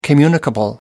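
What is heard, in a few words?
"communicable", English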